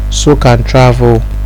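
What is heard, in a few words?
"soak and travel", Nigerian Pidgin, male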